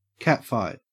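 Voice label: "catfight", Australian English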